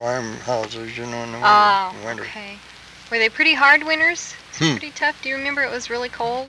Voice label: Oral history with 88 year old white male Fruita Colorado, american english dialect